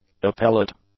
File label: "appellate", English; adjectives